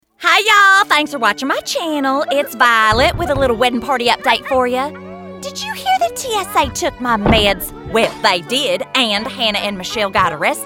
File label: Animation Demo; Float Studios